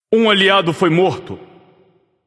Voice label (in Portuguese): notify slain ally, vox caster portuguese